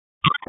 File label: EMS ALERT; wake